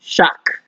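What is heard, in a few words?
"shack", Nigerian Pidgin, male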